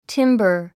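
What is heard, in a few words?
"timber", English word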